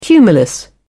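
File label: "cumulus", British English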